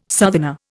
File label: "southerner", Indian